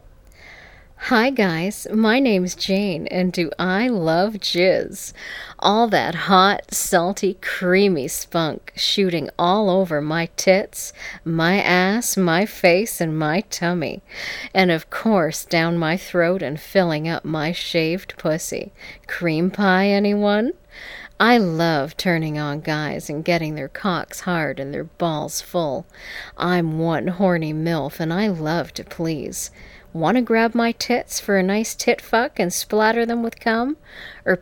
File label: voice greeting